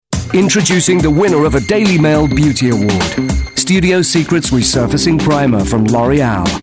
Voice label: commercial; Loreal